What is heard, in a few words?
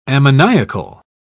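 "ammoniacal", English, British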